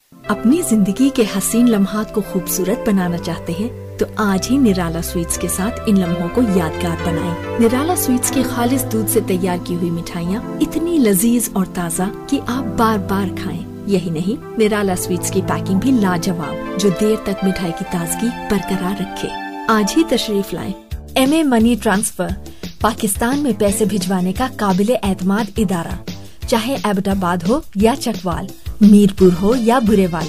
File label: female Indian Hindi Pakistani Urdu compilation